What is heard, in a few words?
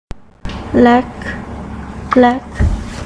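"lick", spoken word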